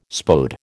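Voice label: "Spode", American English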